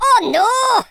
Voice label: uh oh, Scotsman; speech